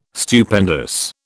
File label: "Stupendous", British